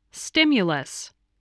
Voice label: "stimulus", voice